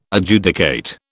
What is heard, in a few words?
"Adjudicate", British